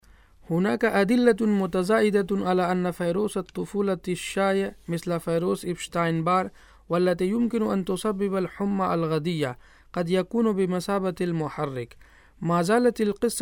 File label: Arabic